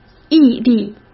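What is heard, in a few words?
"毅力" Mandarin Chinese; hsk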